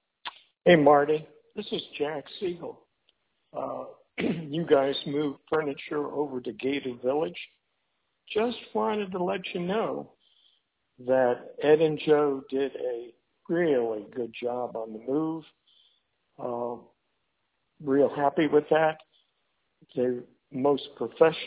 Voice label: voicemail